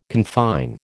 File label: "confine", American